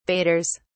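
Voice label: "baiters", British English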